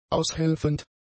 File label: "aushelfend", German; adjectives